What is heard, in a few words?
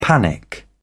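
"panic", British English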